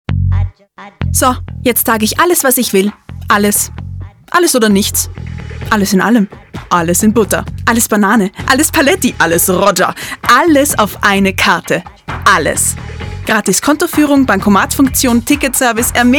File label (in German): werbung; Demo Radiospot (DE); Volksbank Aktivcard